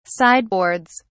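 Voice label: "sideboards", British English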